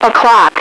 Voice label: "o'clock", radio, female

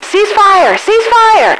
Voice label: ceasefire, radio, female

